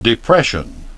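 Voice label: "depression", English pronunciation; dɪˡpreʃ.ən, də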